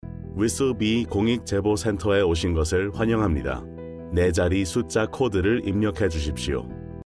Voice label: 外籍韩语男 深沉嗓音

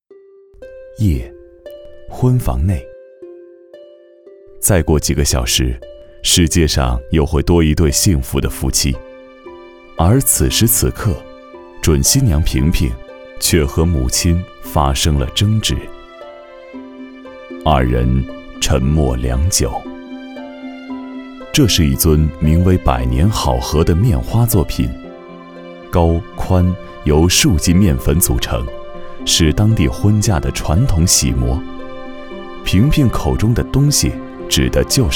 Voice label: 男 纪录片 人文 面花情缘

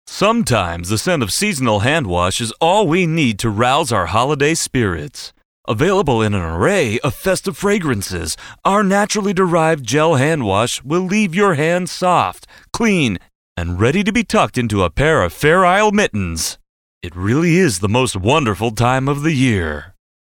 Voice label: 叙述 M

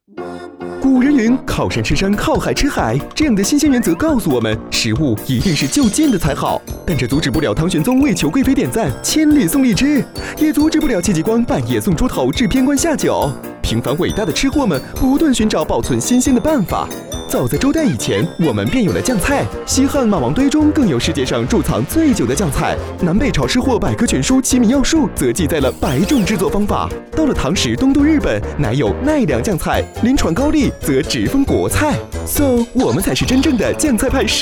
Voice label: 男-轻松幽默; MG飞碟说

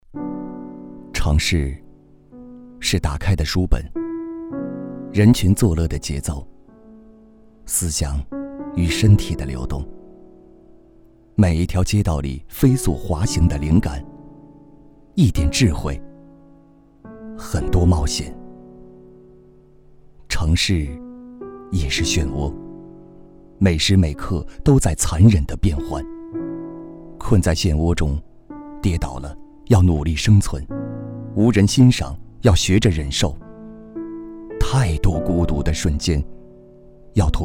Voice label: 男 旁白

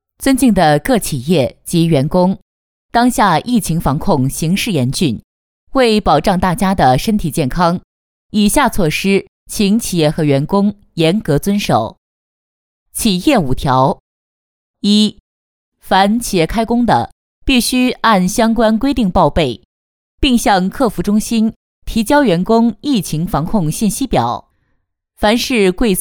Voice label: 疫情期间广播; 新园

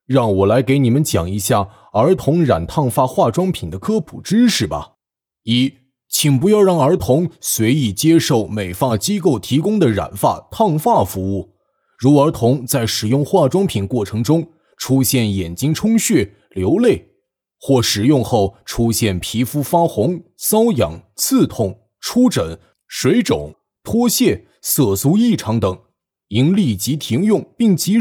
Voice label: 男 角色 大象动漫人物

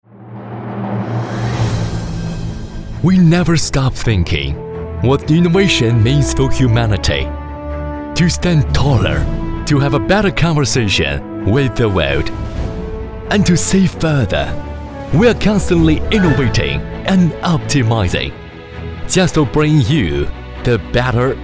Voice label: 男 英文 企业宣传片; Yeekai Electrical

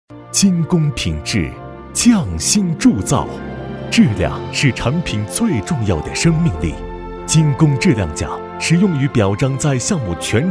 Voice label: 男 专题 颁奖

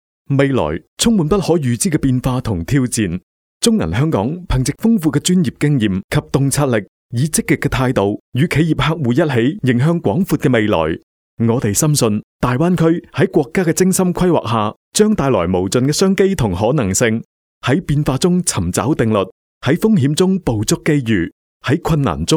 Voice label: 粤 男 宣传片; 中銀香港

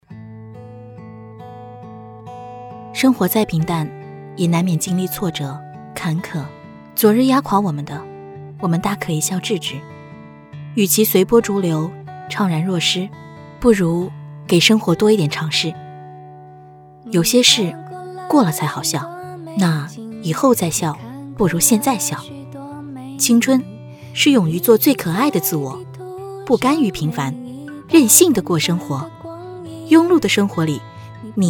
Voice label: 女 中文 旁白 微电影

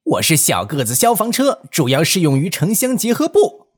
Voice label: 男 角色 小个子消防车

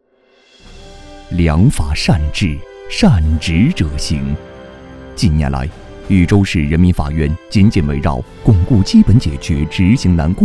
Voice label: 男 大气浑厚 法院

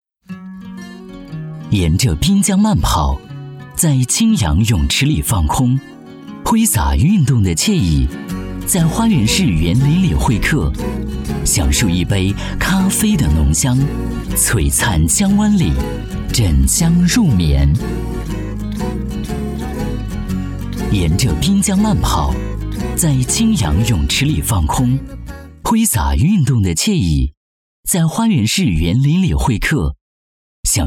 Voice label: 男 旁白; 万科

